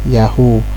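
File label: "yahoo", Nigerian Pidgin, male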